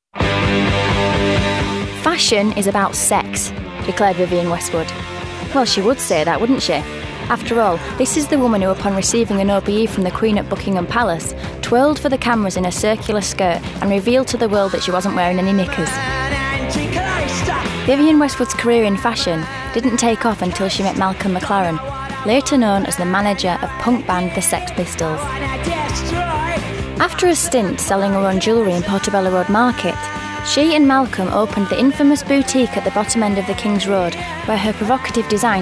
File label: Documentary